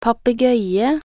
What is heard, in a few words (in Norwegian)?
"papegøye", nb_NO